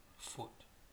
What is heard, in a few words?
"foot", pre-voiceless